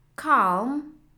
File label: calm